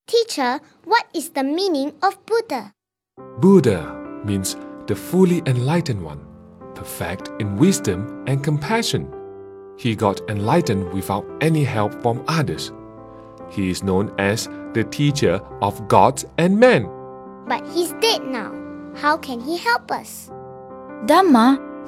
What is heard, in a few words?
Narration